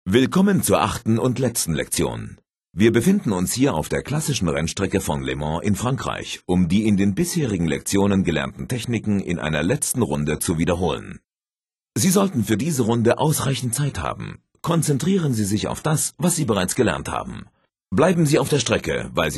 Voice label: singlelap german; MotoGP 3